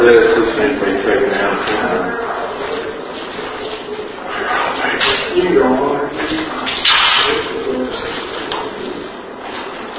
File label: Room with Fireplace Unexplained whisper Amplified; Turner Home; 2nd Floor; (Hey Rodney)